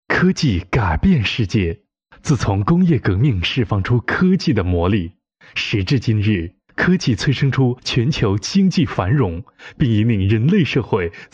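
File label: Chinese, male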